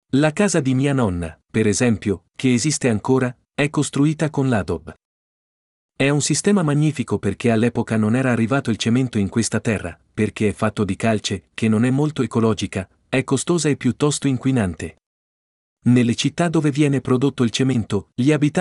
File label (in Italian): Italiano